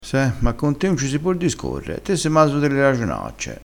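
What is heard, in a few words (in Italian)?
"maso delle", dialettale crespinese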